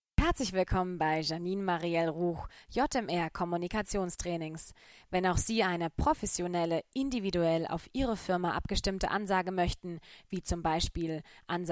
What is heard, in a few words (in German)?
Werbeansage